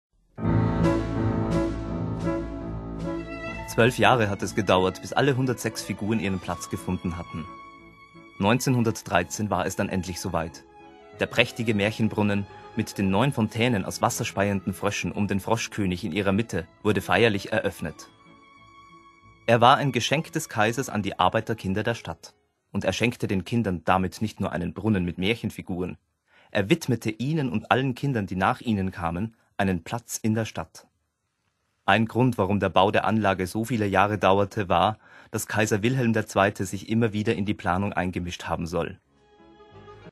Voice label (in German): Feature, maenner; Hörspiel - Feature